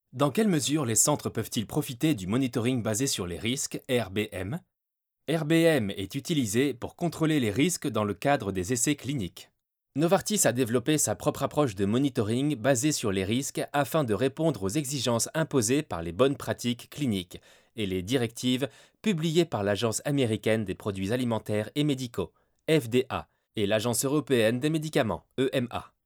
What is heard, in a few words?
male french e-learning; novartis